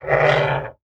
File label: Zombie